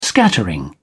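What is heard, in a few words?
"scattering", British English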